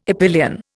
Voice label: "epyllion", Indian

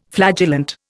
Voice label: "flagellant", Indian

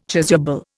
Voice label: "chasuble", Indian

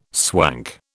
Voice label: "swank", British

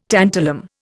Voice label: "tantalum", Indian

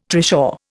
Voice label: "trishaw", Indian

